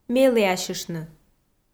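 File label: "меліасьыштны", Komi, female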